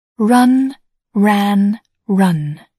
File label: "run", English